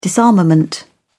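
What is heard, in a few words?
"disarmament", British English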